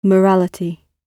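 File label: "morality", British English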